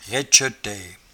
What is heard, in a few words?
"raitchetaie", patois jura